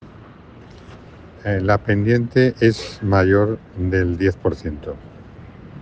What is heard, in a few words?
Voice message